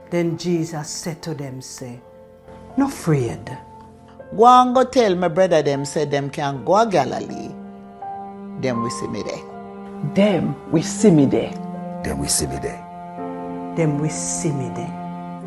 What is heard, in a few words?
verse, jamaican creole